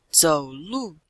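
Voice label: "zou3lu4", Chinese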